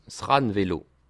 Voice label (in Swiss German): "rannvelo", alsace